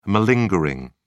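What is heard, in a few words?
"malingering", English, uk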